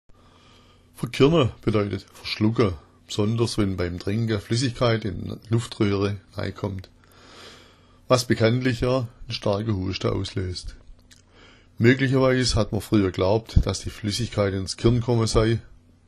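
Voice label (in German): verkirna, schwäbisch; bedeutet verschlucken – besonders, wenn beim Trinken Flüssigkeit in die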